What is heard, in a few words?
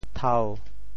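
"thau1", Teochew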